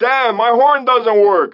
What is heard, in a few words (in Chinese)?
拉丁裔海滩中年男性; 罪恶都市